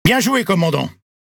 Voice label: Speech Quick Commands Good Game Ship, FR; World Of Warships